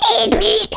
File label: Fatality, Danish